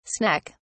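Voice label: "sneck", British English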